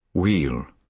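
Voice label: "wheel", British English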